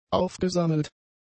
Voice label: "aufgesammelt", German; adjectives